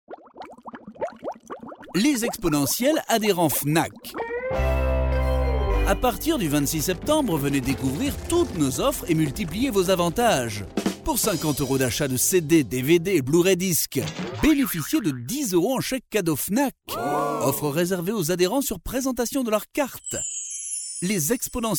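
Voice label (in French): French, male, commercial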